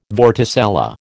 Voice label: "vorticella", American

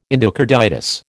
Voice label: "endocarditis", American

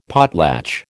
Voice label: "potlatch", American

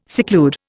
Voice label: "seclude", Indian

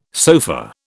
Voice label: "sofa", British

